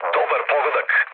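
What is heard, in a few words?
Serbian bomb success mood low, speech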